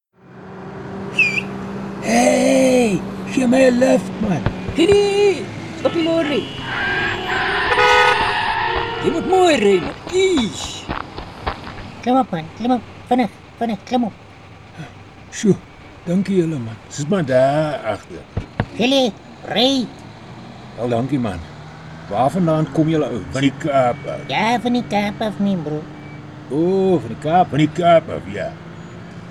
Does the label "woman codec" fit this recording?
No